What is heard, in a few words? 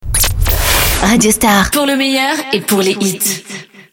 ID SWEEP FEMALE; COMBLAGES RADIO STAR; PLEIN COEUR